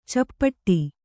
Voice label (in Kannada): "ಚಪ್ಪಟ್ಟೆ", kannada